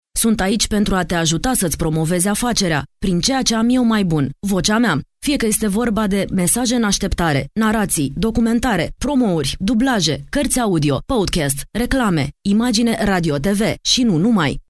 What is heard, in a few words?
locutora rumana romanian voiceover; locutora de Rumanía